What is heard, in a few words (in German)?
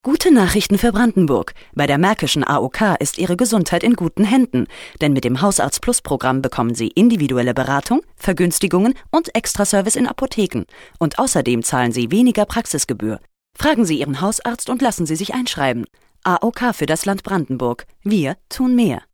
Neutral